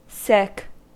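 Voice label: "sic", US English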